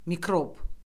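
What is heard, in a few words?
"mikrob", Azerbaijani; Orfoqrafiya Lüğəti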